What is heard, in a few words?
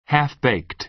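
"half-baked", American English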